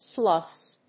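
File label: "sloths", English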